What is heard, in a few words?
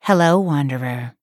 vo greetings